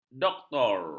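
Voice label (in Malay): "doktor", Malay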